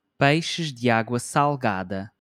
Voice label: "peixes de água salgada", Portuguese

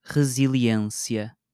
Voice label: "resiliência", portuguese

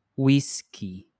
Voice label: "uísque", Portuguese

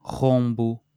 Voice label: "rombo", portuguese

